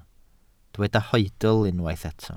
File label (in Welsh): "hoedl", Welsh